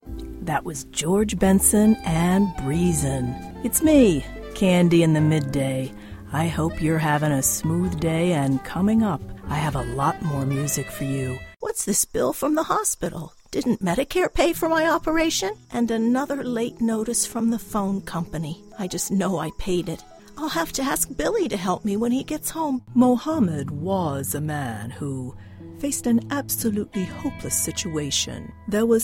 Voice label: Character Reel